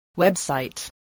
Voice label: "website", spelling

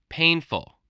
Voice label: "painful", English voice

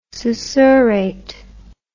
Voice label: "susurrate", English word

